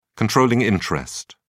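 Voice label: "controlling interest", British English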